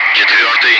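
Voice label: Russian calm; Wingman; DCS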